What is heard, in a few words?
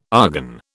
"argon", British